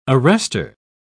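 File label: "arrester", British English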